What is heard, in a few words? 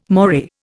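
"moray", Indian English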